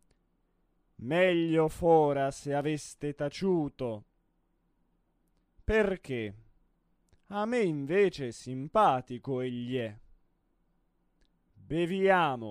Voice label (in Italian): espressivo; La traviata; FLORA